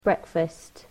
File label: "breakfast", English